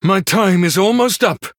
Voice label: Male Human Time

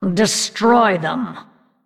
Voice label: Female Undead; Anduin Mission8 Hero Power

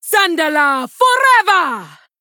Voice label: Female Troll Attack

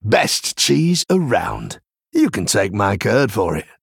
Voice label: Male Human Play

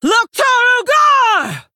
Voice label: Female Orc; Story Hero Rokara

